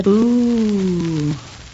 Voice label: "ooh" female

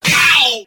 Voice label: ow male

